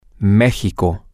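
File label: mexico, Spanish, male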